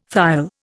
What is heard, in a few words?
"thiol", Indian